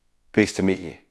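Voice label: Voice Acting, Spanish, male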